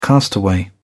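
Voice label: "castaway", British English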